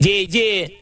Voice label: "yeah yeah", voice; ooh ahh

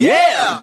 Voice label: "yeah", group of men

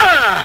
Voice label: "uh" fake; ooh ahh; uh um

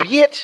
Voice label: "bitch", expletives

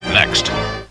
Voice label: next, announcer

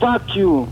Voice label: "fuck you", male; Voice; expletives

